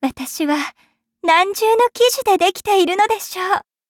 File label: levelup ja; cookierun